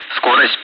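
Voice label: Speech, Russian, air traffic control; DCS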